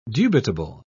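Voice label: "dubitable", British English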